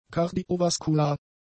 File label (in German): "kardiovaskular", German; adjectives